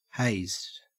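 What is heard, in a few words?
"hazed", Australian English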